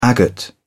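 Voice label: "agate", British English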